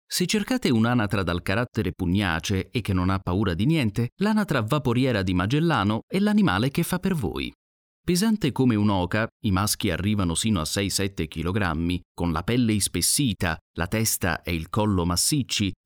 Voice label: italian documentaries

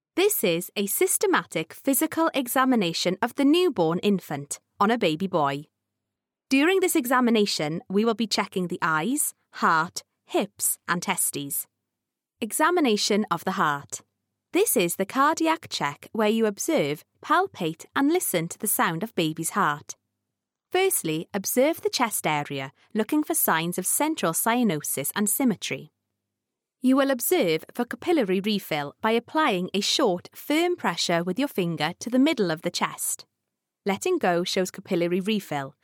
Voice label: english british medical narration

